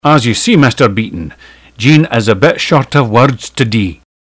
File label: scots